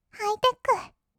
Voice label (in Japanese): ハイテック, kawaii voice, 音楽ジャンル; ASMR; 1200 kawaii voice!!